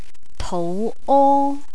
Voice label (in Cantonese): tou o, Cantonese, sick